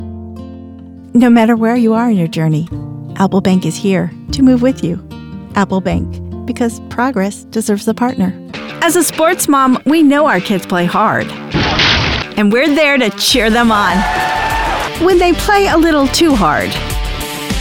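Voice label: Commercial, Professional Female Voice Actor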